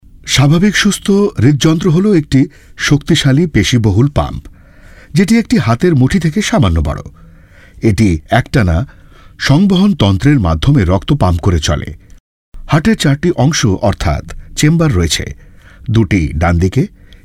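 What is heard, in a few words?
Bangla male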